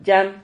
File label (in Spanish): "yam", Silabas